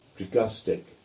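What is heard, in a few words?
"Tregustick" English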